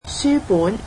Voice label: "book", Cantonese

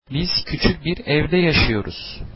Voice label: we live in a small house, turkish

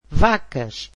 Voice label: "cows", Portuguese

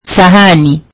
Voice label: Swahili plate

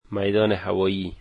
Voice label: "airport", Dari

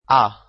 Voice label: italian alphabet a

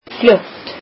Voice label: icelandic quickly

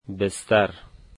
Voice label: bed, dari

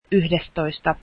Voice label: eleventh, Finnish

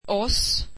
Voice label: swedish us

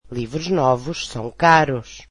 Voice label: portuguese new books are expensive

